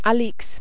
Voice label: "Alix", French